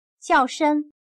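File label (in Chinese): "叫声" Mandarin Chinese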